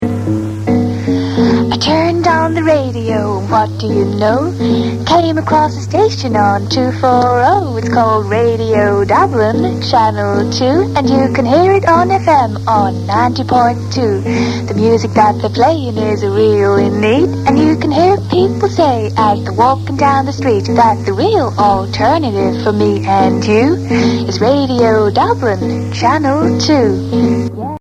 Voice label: radio dublin